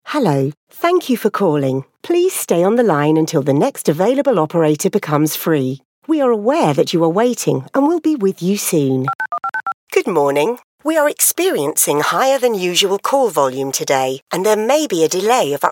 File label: telephone